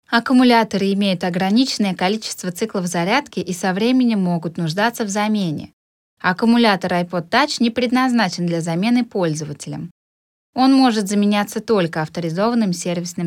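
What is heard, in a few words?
Russian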